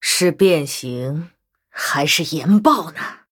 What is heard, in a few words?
Blood Elf threaten